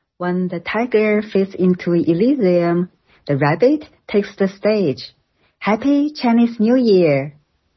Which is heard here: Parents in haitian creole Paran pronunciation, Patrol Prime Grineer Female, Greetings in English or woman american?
Greetings in English